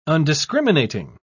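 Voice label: "undiscriminating", British English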